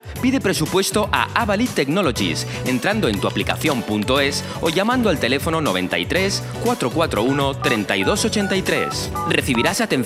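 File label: Spanish Spain, commercial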